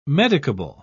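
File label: "medicable", English, British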